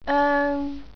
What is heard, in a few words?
"oeng" Cantonese jyutping